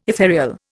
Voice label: "ethereal", Indian